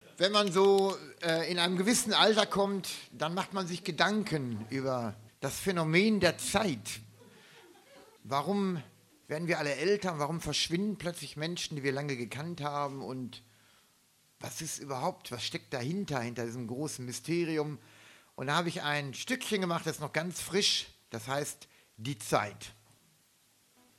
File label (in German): ansage; abgesang